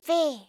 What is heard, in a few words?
"V", alphabet